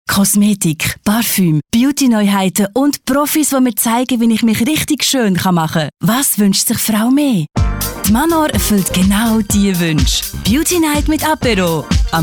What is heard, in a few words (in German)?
Radio Spot Dialekt neutral, sprecherin; Beauty Night Manor